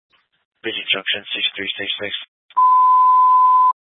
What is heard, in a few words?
Fire Dispatch